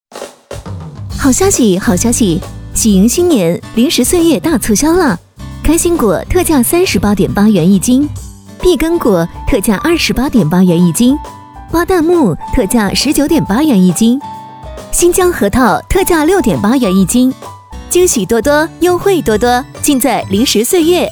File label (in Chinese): 女 促销 商场打折优惠播报